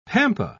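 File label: "pampa", British English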